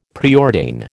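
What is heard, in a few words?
"preordain", American English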